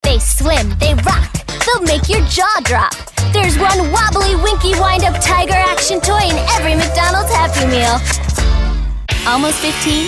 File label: kid voices; promo; women